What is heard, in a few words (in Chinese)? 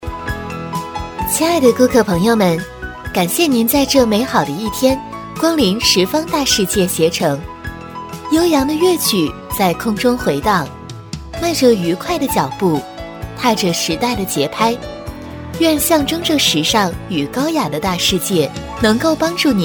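【激情促销】鞋城; 什邡大世界鞋城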